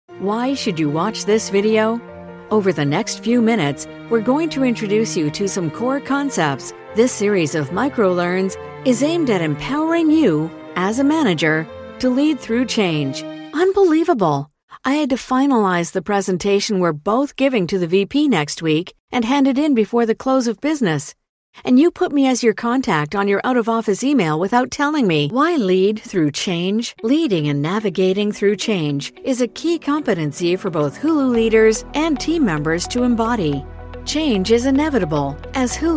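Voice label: F, English Canada, female, narration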